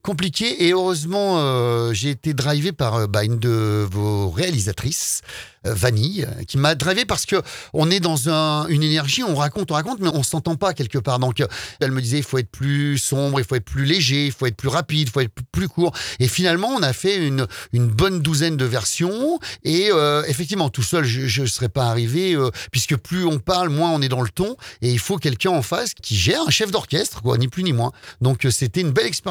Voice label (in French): doublage